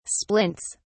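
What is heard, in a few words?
"splints", British English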